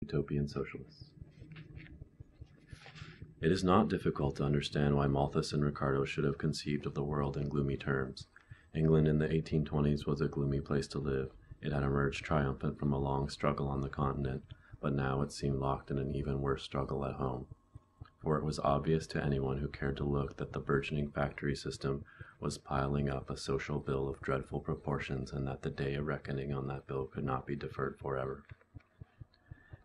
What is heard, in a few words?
ASMR Relaxation for Sleep Economics History read in Monotone Voice